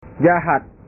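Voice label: "jahat", Indonesian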